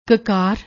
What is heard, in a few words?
"kkar", Punjabi; ਕਕਾਰ